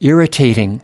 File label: "irritating", us